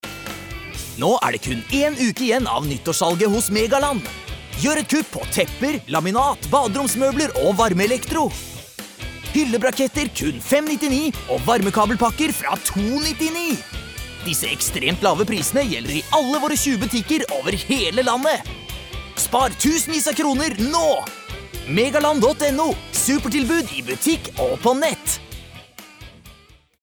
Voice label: no hard sell, norsk mann